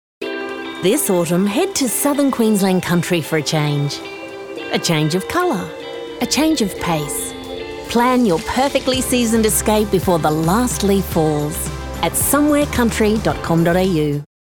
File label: Southern Qld Country